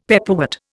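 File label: "pepperwort", Indian English; Dictionary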